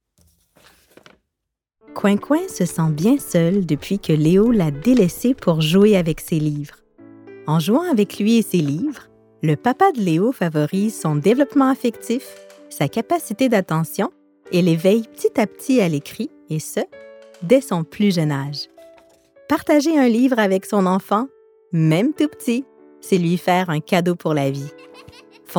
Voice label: Narration; Fondation Lucie Et André Chagnon